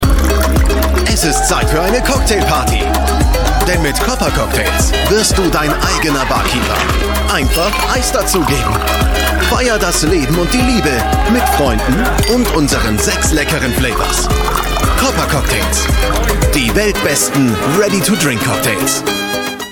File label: german voice over male commercial